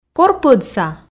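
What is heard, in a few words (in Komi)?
кор пӧдса, Komi, female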